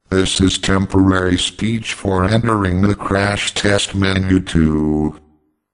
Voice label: menu crashtest type, Voice Over; Volvo Drive For Life

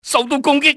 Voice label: under fire, cantonese, male; XBOX; enemy

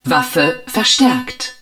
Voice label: HUD weapon augmented, german

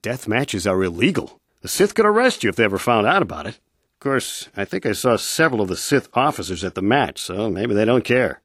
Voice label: male

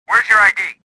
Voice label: suspicious; XBOX; worker

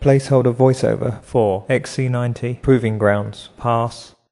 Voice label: congrats, VoiceOver; Volvo Drive For Life

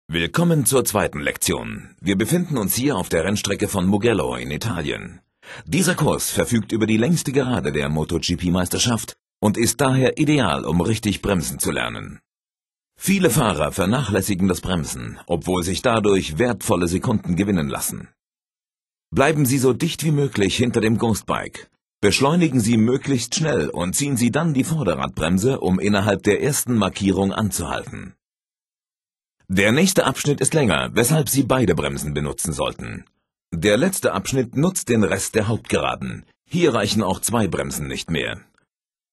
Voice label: braking german; MotoGP 3

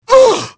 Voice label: woman attack; Pirates Of The Caribbean; DUEL

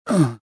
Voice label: pushed, rebel

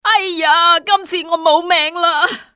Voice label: my god, male; XBOX; civ

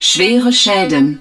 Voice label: DAMAGE CRITICAL, german

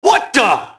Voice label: mobster alert; Max Payne

